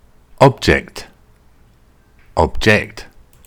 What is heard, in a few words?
"object", English; intermediate english pronunciation test